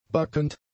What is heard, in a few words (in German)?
"backend", German; adjectives